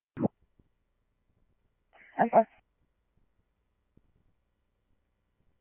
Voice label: scanner, Scottsdale Police